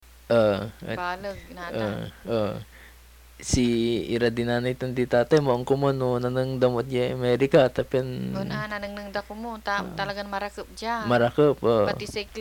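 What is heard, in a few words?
conversation, Pangasinan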